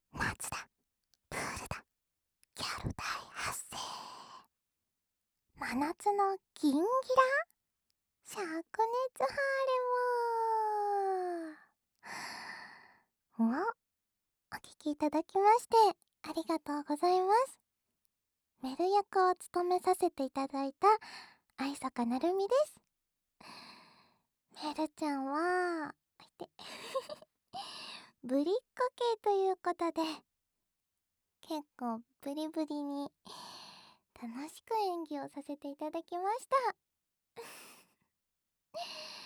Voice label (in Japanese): フリートーク, ASMR; SEなし